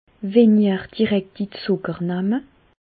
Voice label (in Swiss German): zucker, Bas Rhin; diminuer les sucres simples; medecine